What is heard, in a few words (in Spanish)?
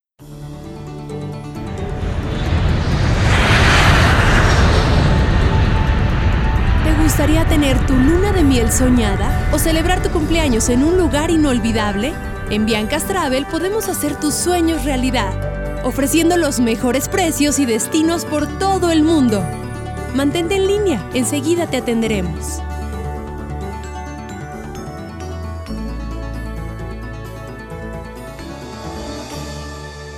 spot; biancas travel